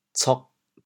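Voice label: "cog4", Teochew, chaoyang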